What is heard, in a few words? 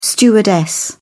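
"stewardess", British English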